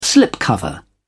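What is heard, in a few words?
"slipcover", British English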